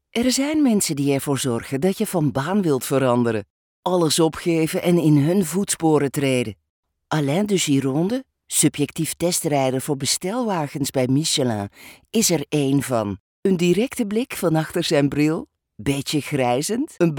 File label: Flemish